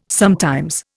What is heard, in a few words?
"sometimes", Indian